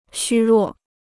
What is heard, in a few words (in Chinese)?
"虚弱", Chinese